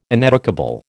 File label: "ineducable", American